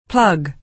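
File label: "plug", American English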